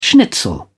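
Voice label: "schnitzel", British English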